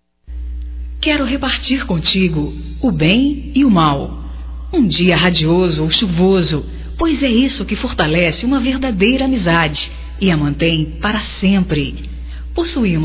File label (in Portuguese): Amizade Geral Feminino, Telemensagens, Só Voz; Telemensagens 2017